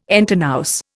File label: "entre nous", Indian